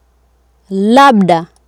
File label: "labda", Swahili